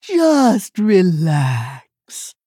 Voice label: Female Tortollan Attack